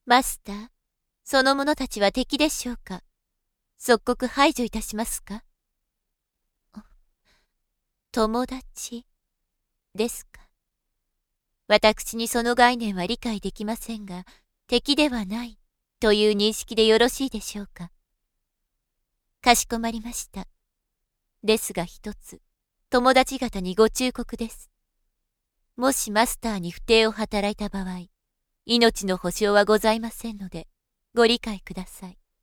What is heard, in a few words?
female